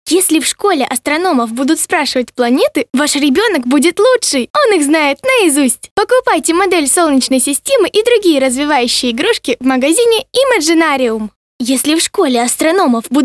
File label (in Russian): девочка 13 лет